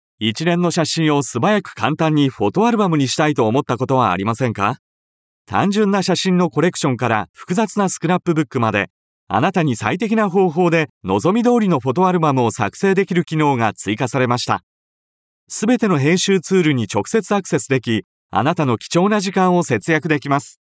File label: Narration